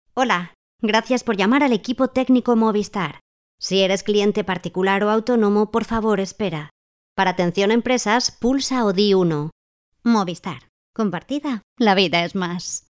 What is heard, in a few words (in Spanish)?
IVR; MOVISTAR